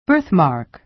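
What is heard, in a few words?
"birthmark", American English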